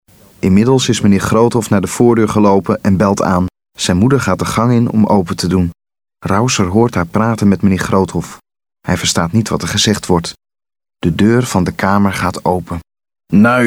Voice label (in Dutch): audioboek